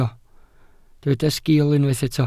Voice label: "sgiil", Welsh